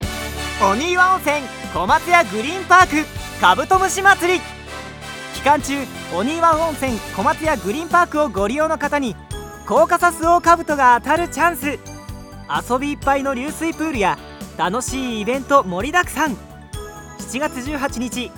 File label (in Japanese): ナレーション